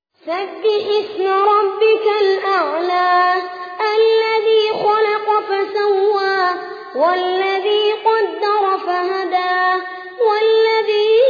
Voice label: ترتیل سوره اعلی; محمد البراک

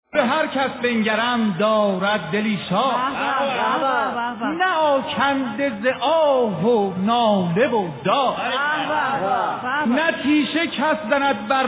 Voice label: شعر طنز

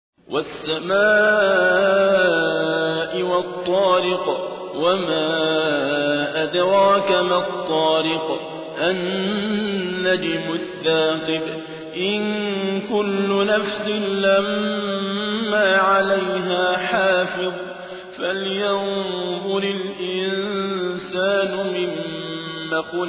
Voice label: ترتیل; صابر عبدالحکم; سوره طارق

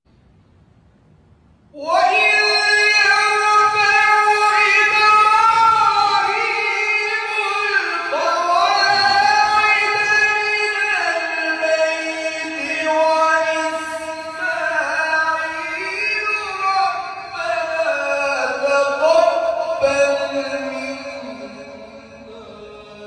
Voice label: تلاوت آیاتی از سوره مبارکه بقره

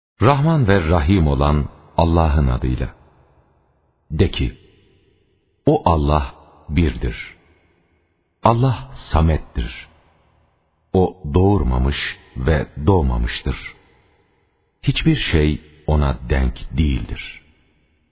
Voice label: ترجمه گویای ترکی استانبولی; سوره اخلاص

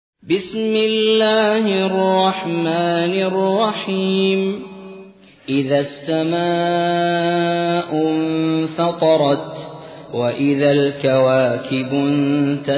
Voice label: ترتیل; سوره انفطار

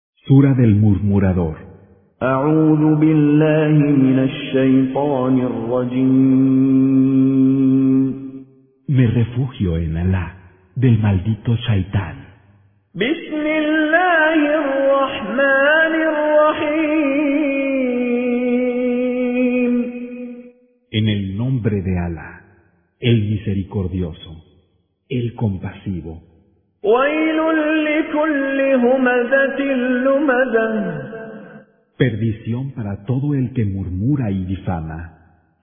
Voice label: ترجمه گویای قرآن به زبان اسپانیایی; با تلاوت مشاری العفاسی; سوره همزه

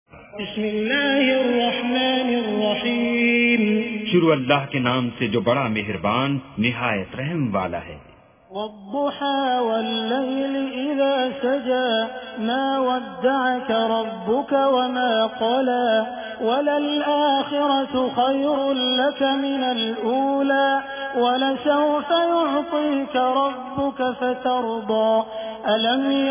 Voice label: ترجمه گویای قرآن کریم به زبان اردو; با تلاوت سدیس و شریم; سوره ضحی

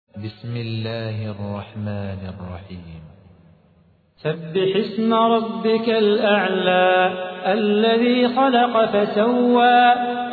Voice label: ترتیل سوره اعلی; صلاح بوخاطر

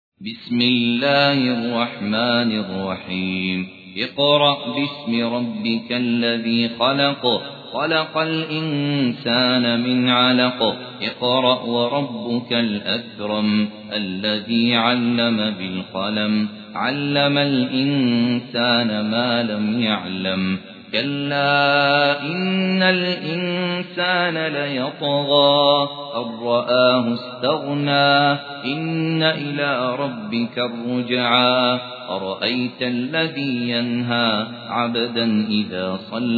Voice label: ترتیل; عبدالله الکندری; سوره علق

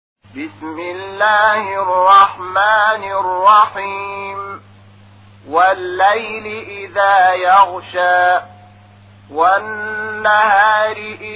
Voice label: ترتیل قرآن کریم سوره لیل; محمود شحات انور

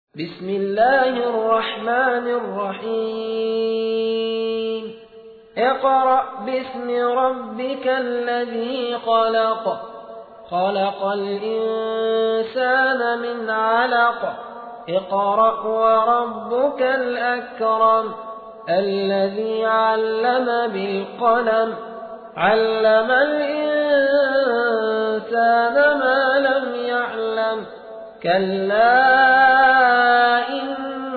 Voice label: ترتیل; سوره علق

